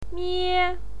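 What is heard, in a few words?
"mie", Mandarin Chinese, first tone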